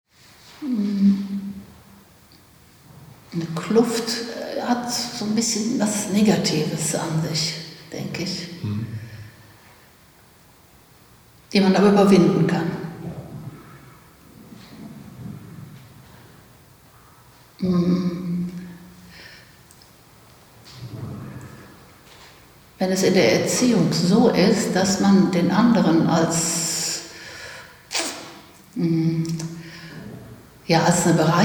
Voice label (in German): rheinlaendisch